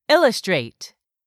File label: "illustrate", English; 3000 words